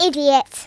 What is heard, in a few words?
oi nutter, Kidz; Worms Armageddon